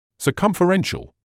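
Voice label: "circumferential", British English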